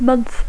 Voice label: "month", English